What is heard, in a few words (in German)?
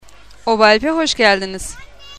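türkisch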